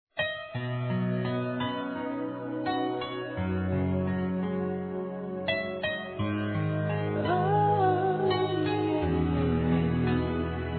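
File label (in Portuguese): desculpas romântica, masculino; Telemensagens 2017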